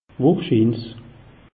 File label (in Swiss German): "wohrschiins", alsace; meteo